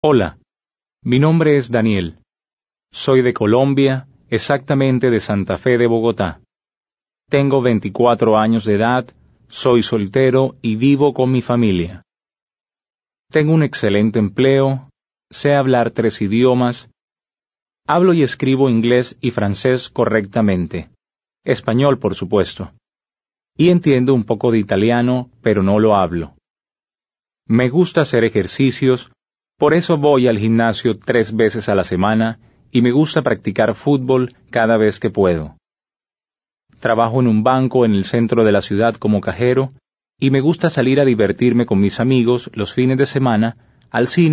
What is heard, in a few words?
Dialogue, Spanish